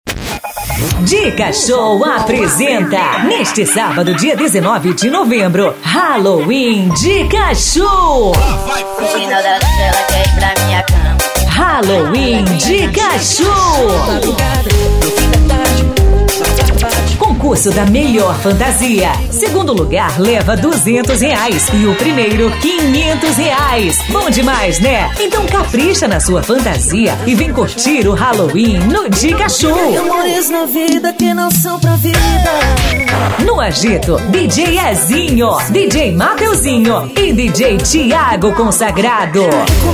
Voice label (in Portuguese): LOCUTOR